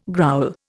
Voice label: "growl", Indian

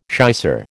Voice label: "shicer", American

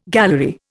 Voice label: "gallery", Indian

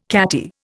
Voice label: "catty", Indian

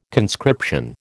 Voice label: "conscription", American

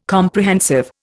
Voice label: "Comprehensive", Indian

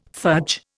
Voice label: "fudge", Indian

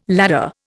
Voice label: "ladder", Indian

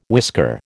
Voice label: "whisker", American

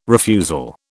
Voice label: "refusal", British

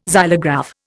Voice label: "xylograph", Indian

